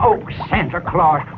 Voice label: oh santa claus, old man angry; Voice; xmas